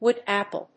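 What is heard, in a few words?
"wood apple", English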